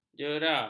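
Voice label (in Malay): "jera", Malay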